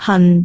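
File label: "han4", Cantonese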